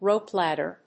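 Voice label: "rope ladder", English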